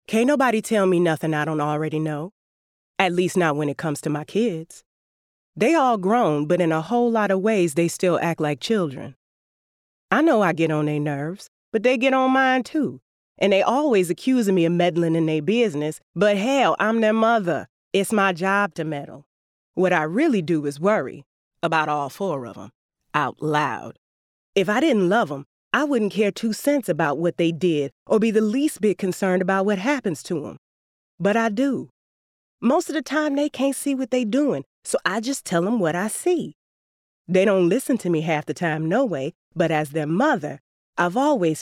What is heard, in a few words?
BOOK READ